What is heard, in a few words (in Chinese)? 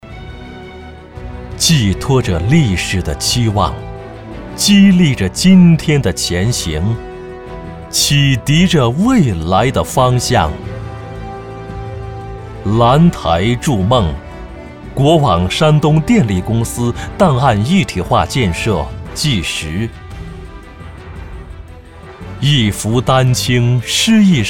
男 专题片; 国网山东电力